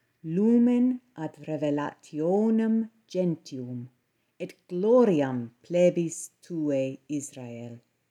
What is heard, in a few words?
Ecclesiastical Pronunciation; “…a light for revelation to the Gentiles, and the glory of your people Israel.” Luke 2:32